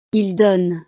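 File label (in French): "ils donnent", French; Ellos dan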